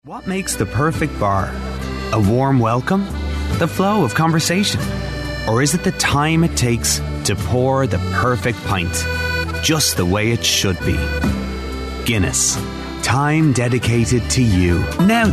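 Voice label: English, Republic of Ireland, male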